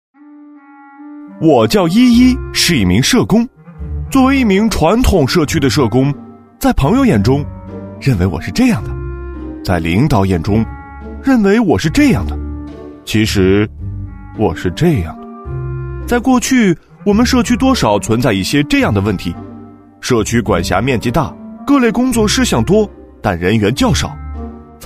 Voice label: 男-轻松幽默; 飞碟说

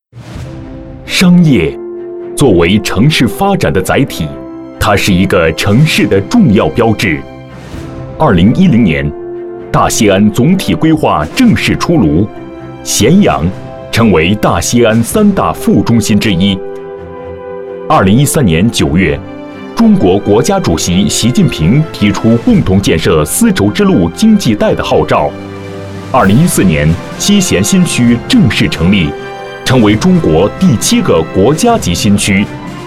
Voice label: 【专题】城市宣传男-磁性大气

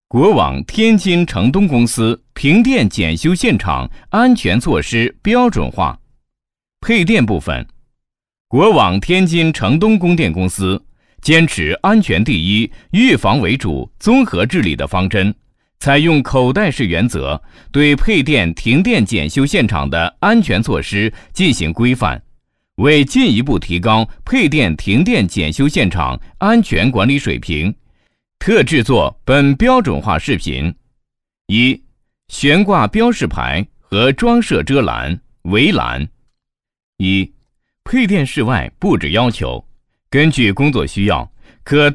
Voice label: 磁性稳重, 男; 项目介绍; 天津国网

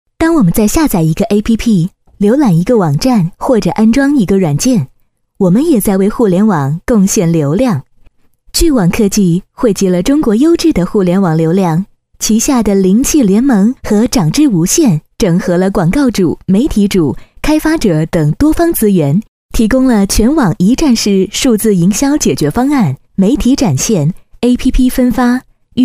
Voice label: 女 活力轻快; 飞碟说; 巨网科技飞碟说女1

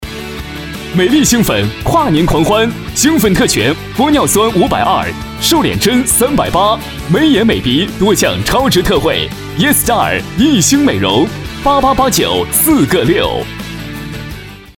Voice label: 【广告】艺星美容男 年轻时尚

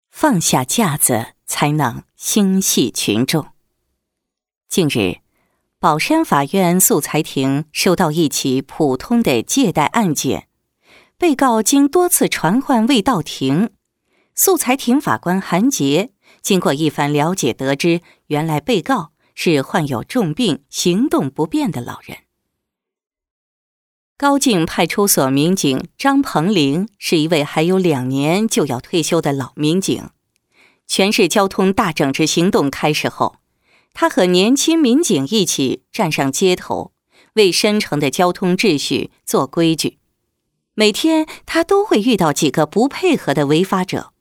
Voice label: 颁奖女 大气

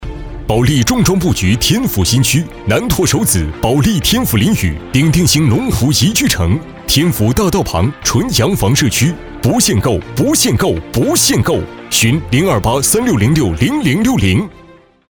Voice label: 广告 大气厚重; 保利天府林语

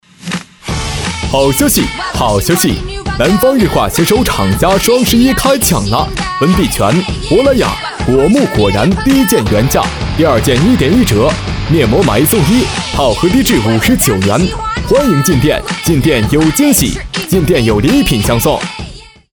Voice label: 促销 男; 南方日化携手厂家

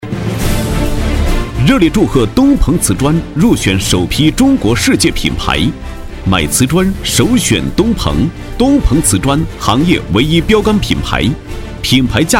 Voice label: 促销 热烈祝贺 男; 东鹏瓷砖

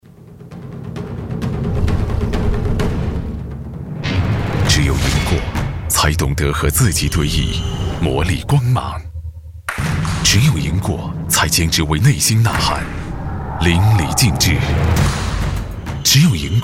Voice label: 广告 手机 男; BON7

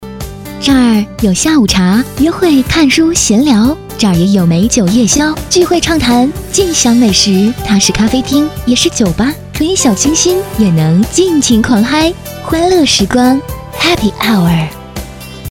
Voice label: 广告 女 时尚; 咖啡厅; 欢乐时光咖啡厅女45

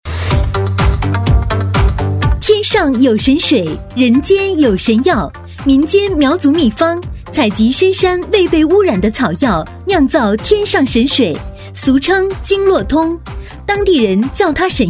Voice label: 促销 女 激情; 神水

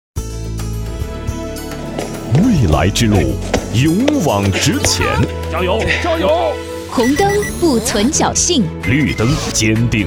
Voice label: 包装 片花; 红绿灯

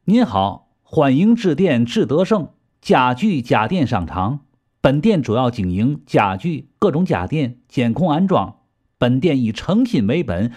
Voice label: 【方言】天津方言彩铃男-大气

